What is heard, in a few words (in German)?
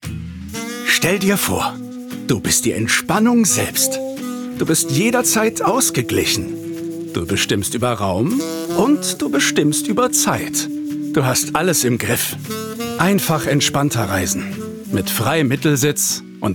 Commercial; AIRLINE